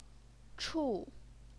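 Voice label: "chu", fourth tone, Chinese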